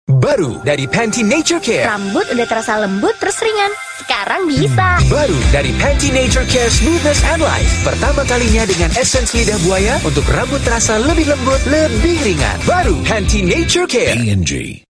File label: Bahasa Indonesia; Pantene